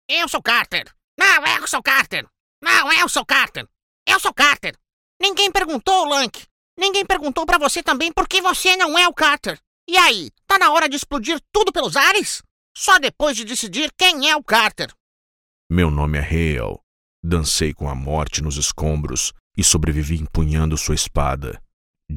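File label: Brazilian game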